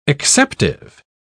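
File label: "acceptive", English, British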